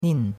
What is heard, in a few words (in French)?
"nin4", chinois; Cours de Chinois